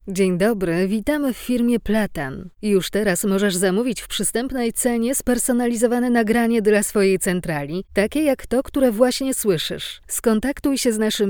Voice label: woman, pl